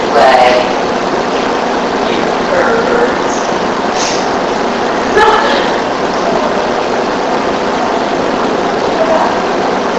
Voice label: Bar Room on the Bar Unexplained Female voice inaudible; 2nd Floor